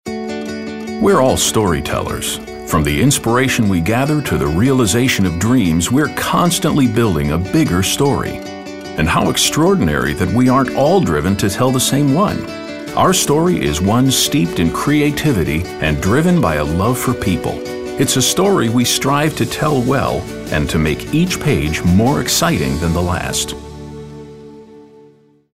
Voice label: Storyteller

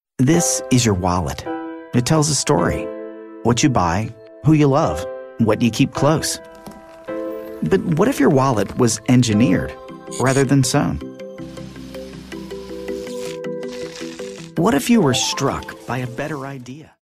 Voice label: storyteller

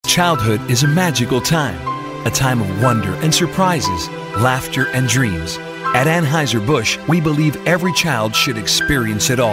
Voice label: thoughtful sincere

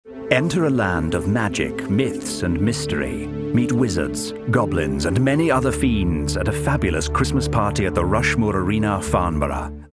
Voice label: ominous

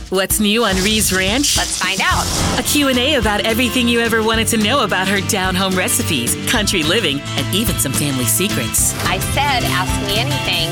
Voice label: Promo

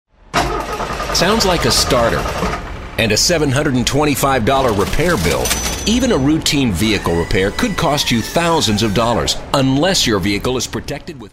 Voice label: real people midlife

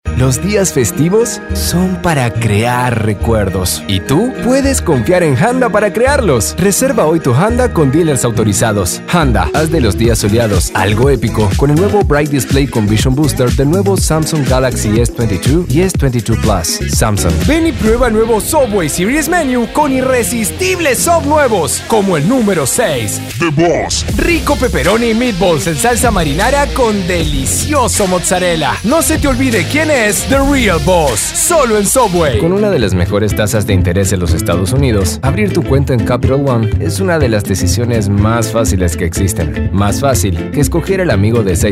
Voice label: Commercial LATAM USA, Male

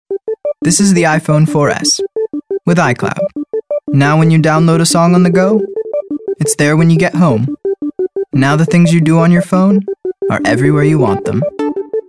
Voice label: informative

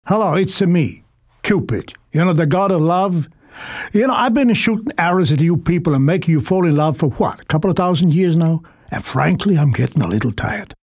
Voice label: italian

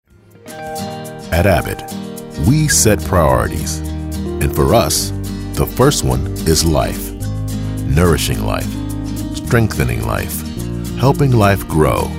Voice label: Caring

